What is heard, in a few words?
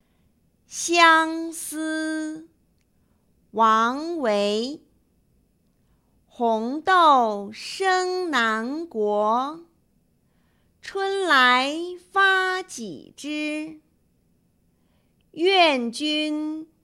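Speech Contest Xiangsi normal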